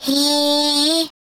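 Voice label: Turret pickup, German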